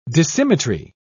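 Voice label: "dissymmetry", British English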